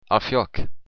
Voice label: fire, occitan

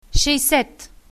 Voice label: sixty, bulgarian, numbers

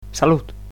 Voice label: "cheers", Catalan

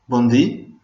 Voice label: good afternoon, venetian

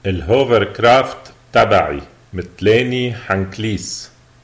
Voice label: hovercraft, lebanese arabic

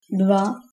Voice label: two, Czech

